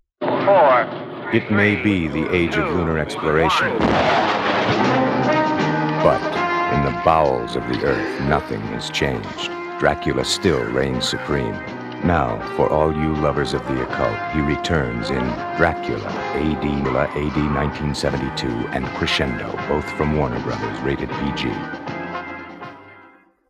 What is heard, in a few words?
Radio Spots; Dracula A.D. 1972; Crescendo